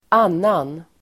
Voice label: "annan", Swedish

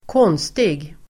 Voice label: "konstig", Swedish

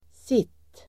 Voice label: "sitt", Swedish

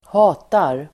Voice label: "hatar", German

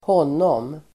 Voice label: "honom", Swedish

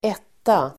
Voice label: "etta", Swedish

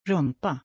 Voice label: "Rumpa", Swedish

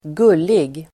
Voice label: "gullig", German

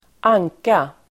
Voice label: "anka", Swedish

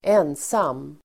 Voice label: "ensam", Swedish

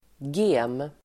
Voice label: "gem", Swedish

